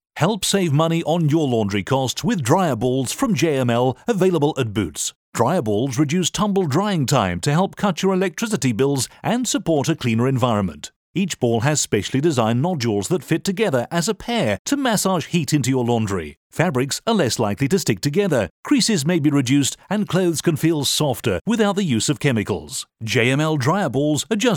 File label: TVC